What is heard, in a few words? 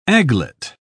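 "aglet", British English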